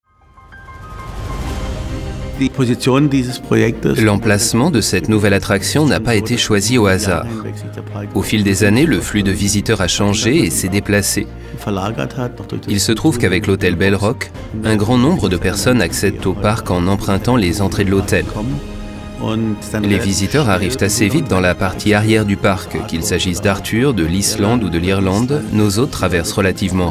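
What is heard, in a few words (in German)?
French, Fremdtake Freundlich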